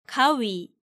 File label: "gawi", Korean